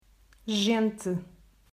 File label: "Gente", Portuguese